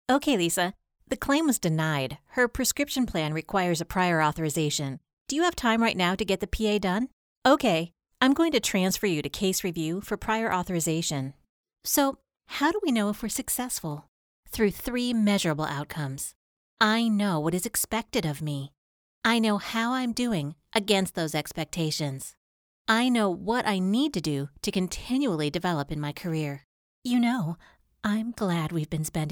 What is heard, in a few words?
english us and canada female e learning